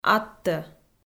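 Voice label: "аттӧ", Komi, female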